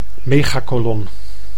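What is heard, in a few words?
"megacolon", Dutch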